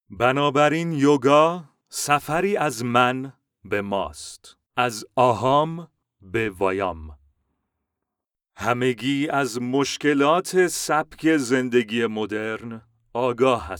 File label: farsi documentaries; Farsi/Persian